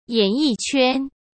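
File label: "演艺圈", Mandarin Chinese; chinese-flashcards; hsk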